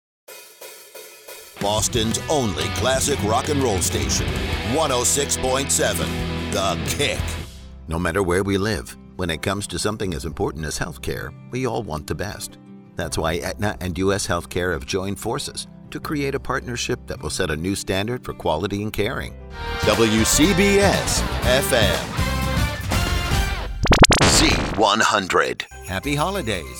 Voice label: Radio

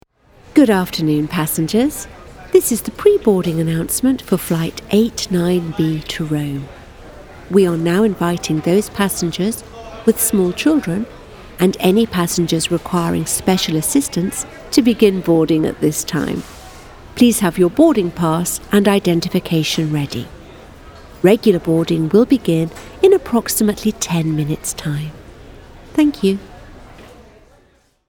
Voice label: M Announcement

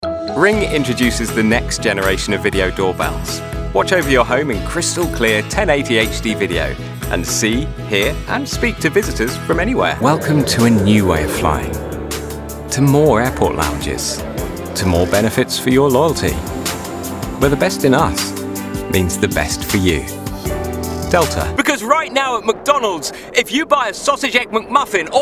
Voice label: Commercial UK

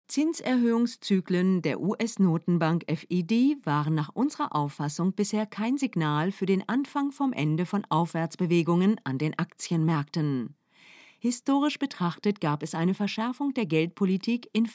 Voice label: Corporate GER

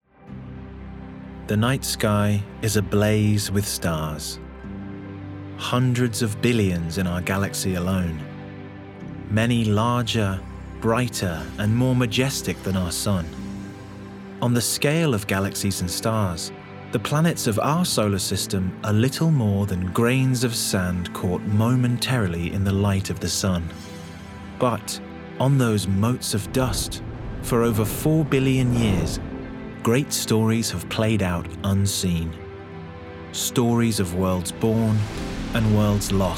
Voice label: Narration RP Accent

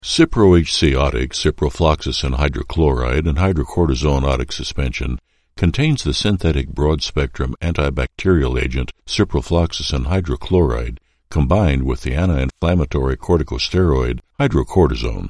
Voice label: medical Narration

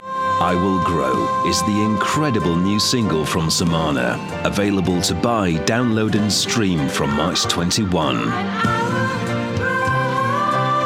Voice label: promo dryvox

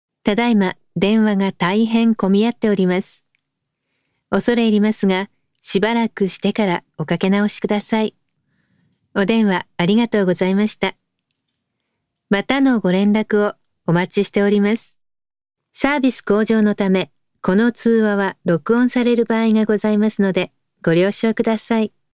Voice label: Telephone IVR; Le Club Accor Hotels

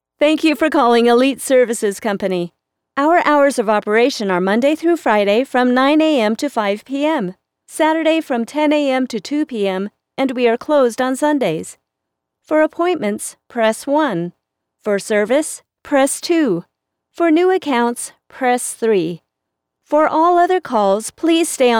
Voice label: voicemail sample dry

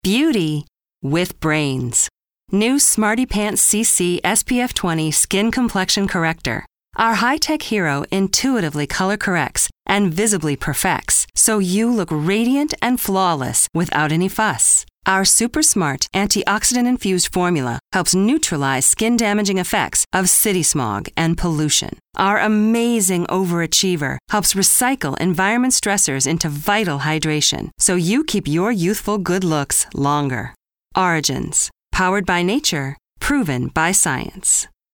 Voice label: Spot

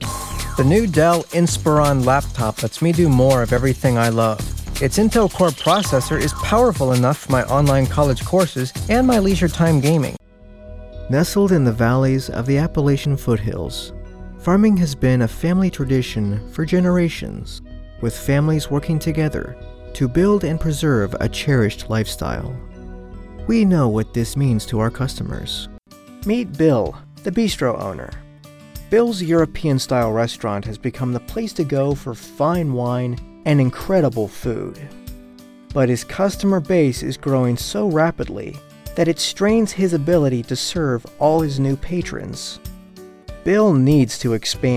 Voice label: Commercial Explainers Business Promos

